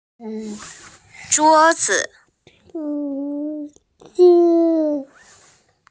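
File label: "zhuo1zi5", Mandarin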